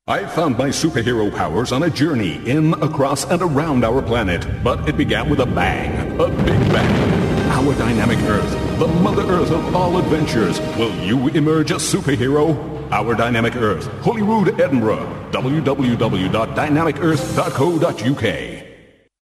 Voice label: superhero

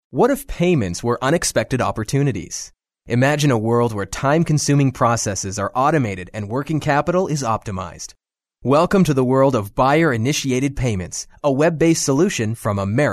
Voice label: corporate

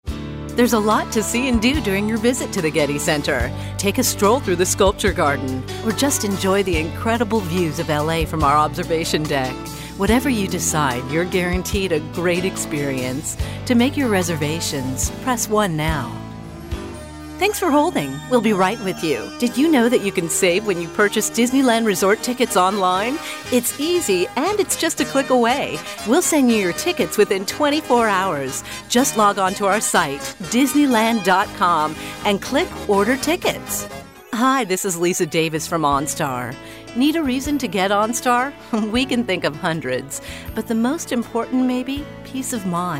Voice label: american corporate

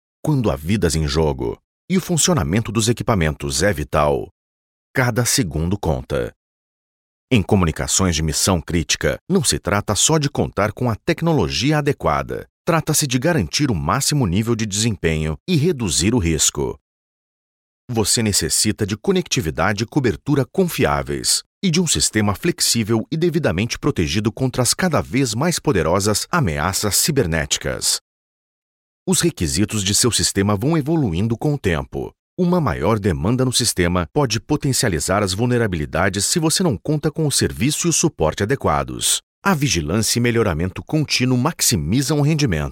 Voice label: brazilian portuguese web script; motorola

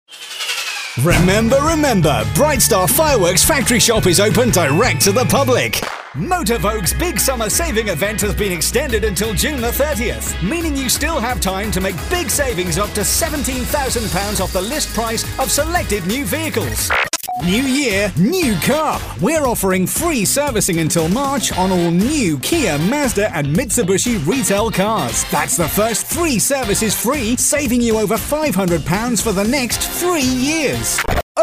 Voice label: commercial hard sell

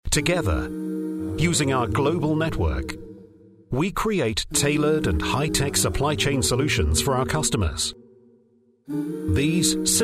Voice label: video corporate formal

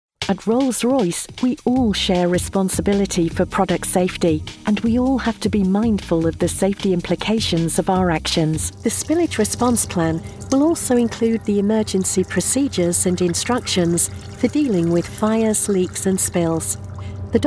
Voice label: elearning

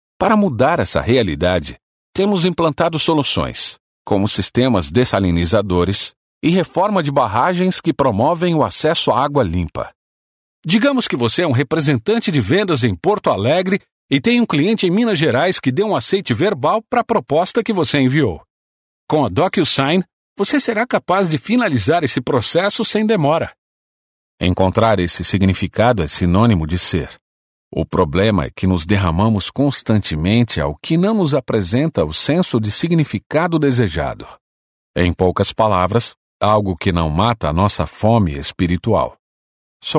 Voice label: brazilian portuguese narration

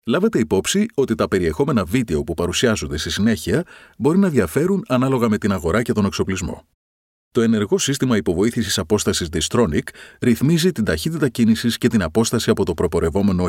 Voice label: greek voice e-learning

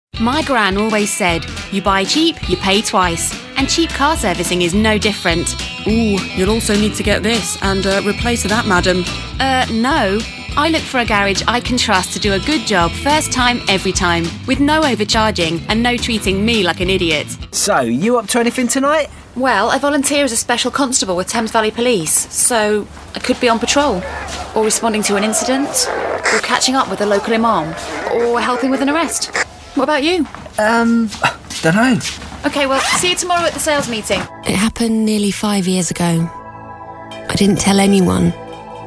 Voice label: natural

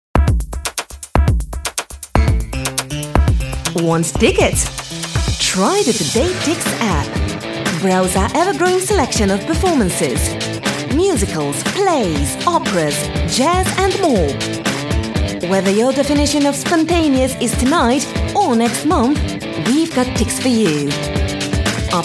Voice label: croatian voice english british accent

